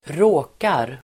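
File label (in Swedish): "råkar", Swedish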